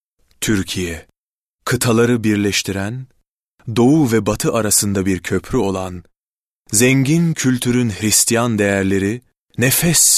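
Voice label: Turkish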